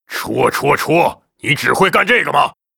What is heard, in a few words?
Grunt pissed, zh; 苦工（魔兽争霸3）; 兽人